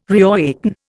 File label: "reawaken", Indian